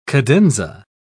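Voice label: "cadenza", British English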